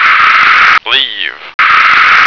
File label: "leave", American